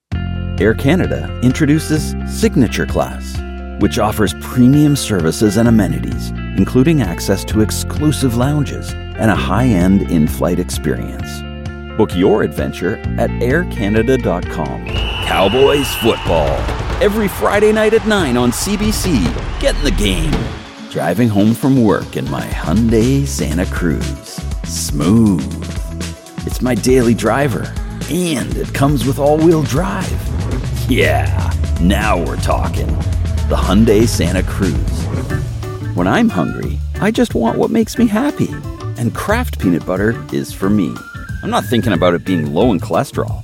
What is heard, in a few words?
Commercial